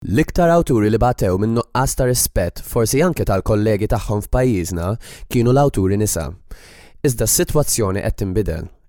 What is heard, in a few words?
MALTESE